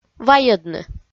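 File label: "вайӧдны", Komi, female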